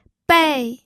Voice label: "bei4", pinyin